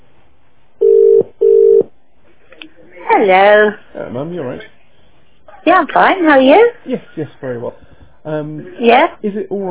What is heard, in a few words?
Voice Call With Mum; This Call Is Being Recorded